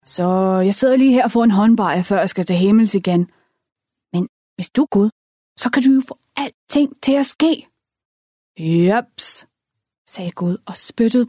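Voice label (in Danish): Danish Female Sample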